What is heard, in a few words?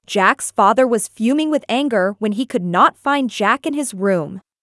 Angry